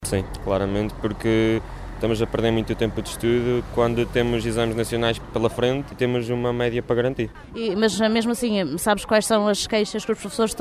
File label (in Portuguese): vox pop alunos; escolas de macedo estao encerradas devido a greve